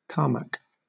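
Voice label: "tarmac", British English; pronunciation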